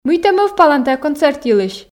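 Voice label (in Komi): мый тэ мӧвпалан тайӧ концерт йылысь, Komi, female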